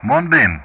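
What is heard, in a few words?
"Moonbeam", English; Ka-50; Callsign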